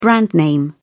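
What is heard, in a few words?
"brand name", British English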